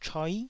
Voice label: "choi9", taiwanese